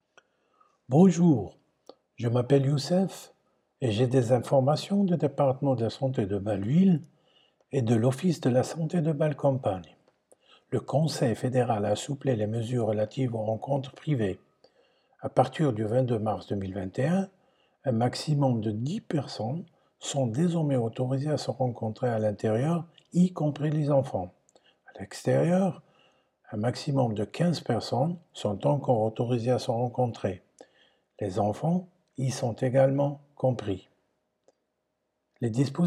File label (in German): franzoesisch; kantonsarztlicher dienst; medizinische dienste; informationen in verschiedenen sprachen